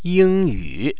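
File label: "yingyu", Chinese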